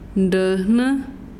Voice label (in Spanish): "flor", mazahua